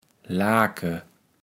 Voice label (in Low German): "Laake", kaevels platt